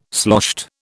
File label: "sloshed", British